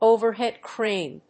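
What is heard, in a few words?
"OVERHEAD CRANE", English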